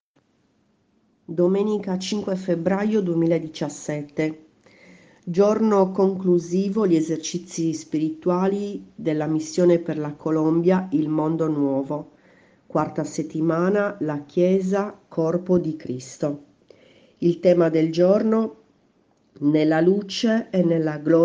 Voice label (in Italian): LETTURA; Colombia; La Chiesa Corpo di Cristo; Nella Luce e nella Gloria di Cristo